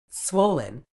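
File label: "swollen", British English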